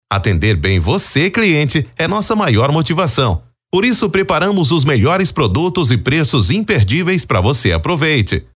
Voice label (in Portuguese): Atendimento Voz Masculina; Rádio Indoor; Farmácia